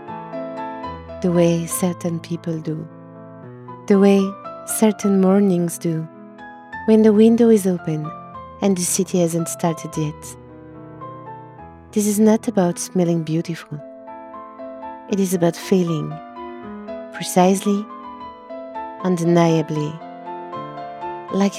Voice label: Commercial Perfume, International English from French Native